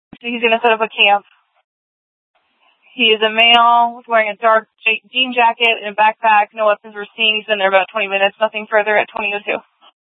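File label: Scottsdale Police South Dispatch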